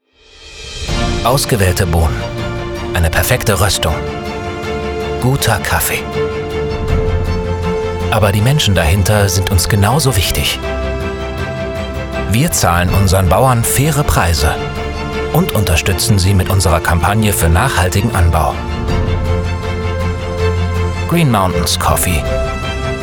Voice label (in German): demo werbung; greenmountains